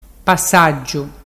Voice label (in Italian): pasaggiu, dialettu